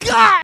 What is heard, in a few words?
"gah", voice; ooh ahh